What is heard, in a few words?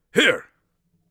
here, Mage Voice; Mage Voice Pack